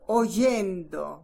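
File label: "oyendo", Portuguese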